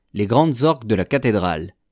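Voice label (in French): les grandes orgues de la cathedrale, French; wielkie organy katedry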